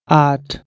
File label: "aat" Nepali, 8